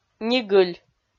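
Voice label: "нигыль", Komi, female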